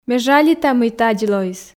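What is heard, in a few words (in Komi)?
ме жалита мый тадз лоис, Komi, female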